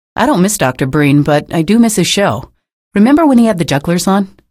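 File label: citizen remarks, female; vo; npc; source engine; episodic; episode 1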